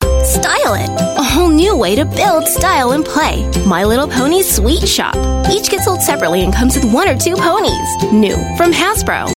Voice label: US English; My Little Pony Sweet Shoppe

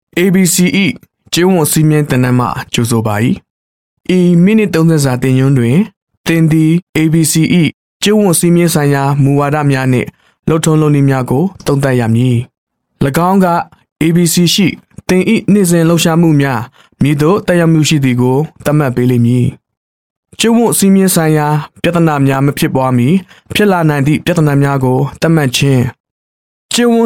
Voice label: Male Burmese E-Learning

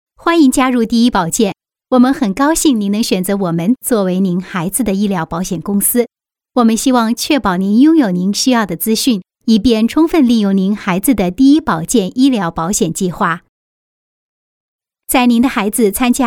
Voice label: Mandarin IVR Phone, China Female

